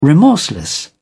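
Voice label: "remorseless", English, British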